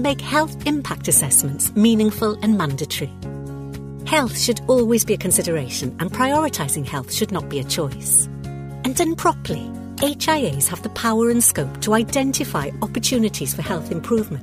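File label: explainer welsh accent, female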